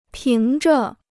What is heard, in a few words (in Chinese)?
"凭着", Chinese